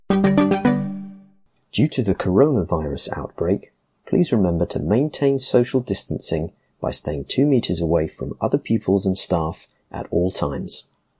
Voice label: Schools coronavirus social distancing with jingle male voice